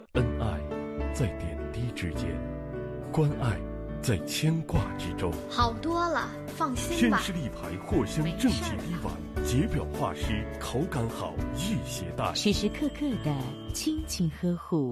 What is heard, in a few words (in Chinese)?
男 CCTV 广告片; 天士力藿香正气滴丸